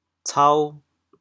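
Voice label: "cao2", Teochew, chaoyang; 大蓬草; 狗尾草、狼尾草; 潮阳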